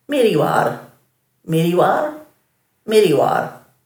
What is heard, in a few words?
"mirriwarr", Dhurga